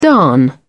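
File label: "darn", English, British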